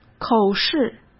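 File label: "口试", Mandarin Chinese; chinese flashcards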